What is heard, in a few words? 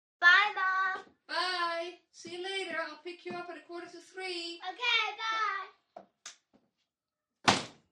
7 Yr Old Girl Off To Sch; kids play